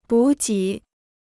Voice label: "补给", Chinese

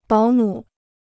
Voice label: "保姆", Chinese

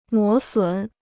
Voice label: "磨损", Chinese

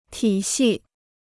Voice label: "体系", Chinese

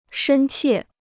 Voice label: "深切", Chinese

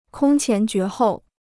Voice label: "空前绝后", Chinese

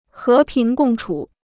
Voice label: "和平共处", Chinese

